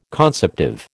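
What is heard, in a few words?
"conceptive", American English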